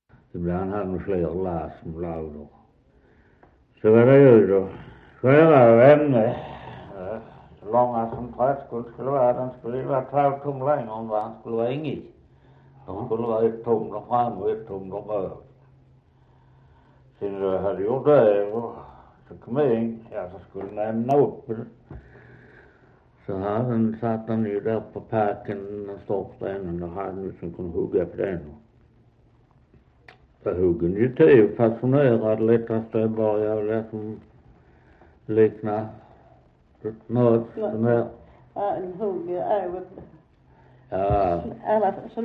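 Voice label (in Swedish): Västra Torup Skåne, Svenska sagor, dialekter; Folkminnen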